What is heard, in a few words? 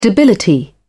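"debility", British English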